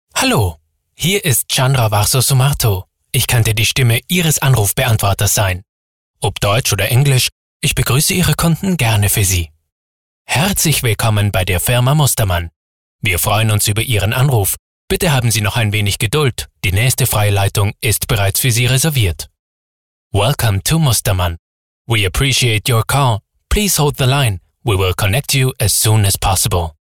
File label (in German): Telefonansagen